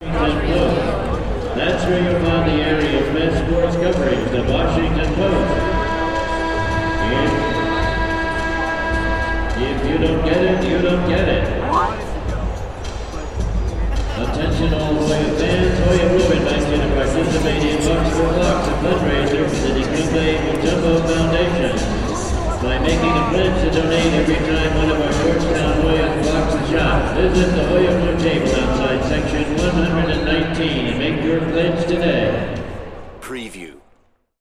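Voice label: Arena Announcement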